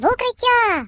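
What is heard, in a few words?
"Take cover", British English; hedgewars; Default uk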